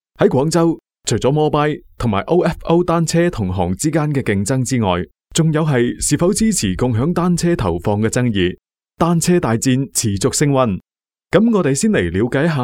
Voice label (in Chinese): 粤男; 广州共享单车